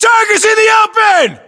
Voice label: US threat infantry exposed, battlechatter, english